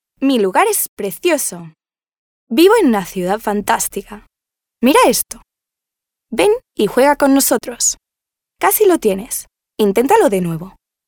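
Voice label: Spanish female children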